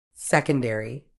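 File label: "secondary", British English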